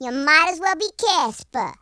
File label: "coward", Gangsta; speech